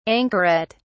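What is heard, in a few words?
"anchoret", English, British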